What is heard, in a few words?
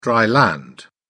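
"dry-land", British English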